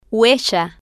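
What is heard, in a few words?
"huella", Spanish, female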